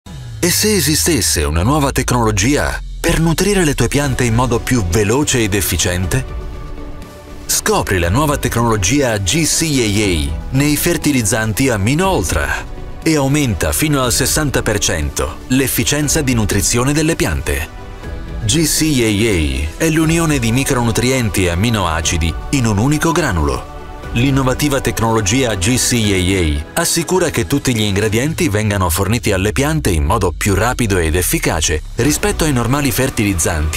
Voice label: male italian corporate; 2023 intermag; Devine Voices